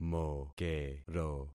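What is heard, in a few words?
"mokero", concatenated syllables; Affixing experiment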